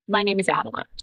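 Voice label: Voice Acting es, female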